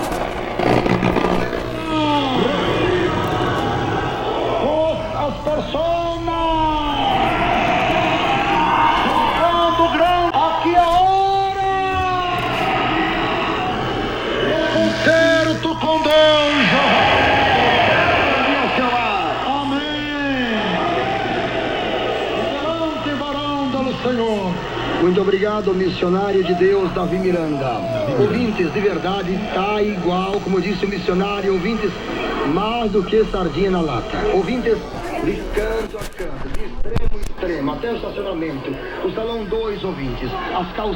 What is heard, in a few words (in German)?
tv priester; Brasilien; Fieldrecordings